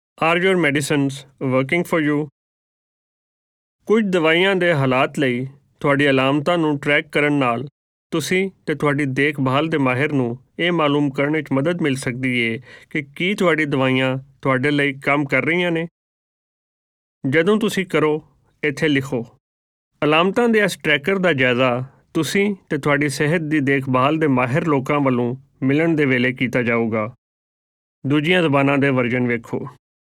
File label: Symptom tracker Punjabi Shahmukhi